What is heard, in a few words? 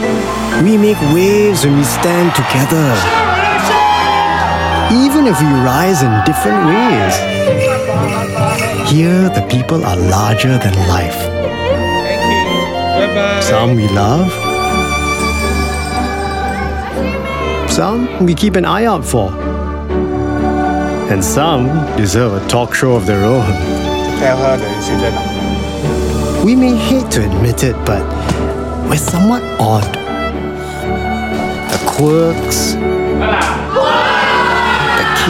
Asian English, English Singaporean Male; SG50